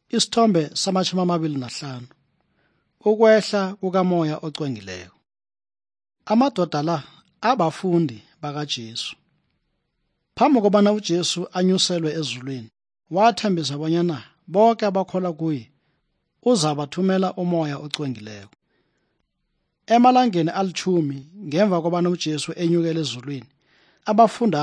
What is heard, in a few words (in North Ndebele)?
good news ndebele southern, drama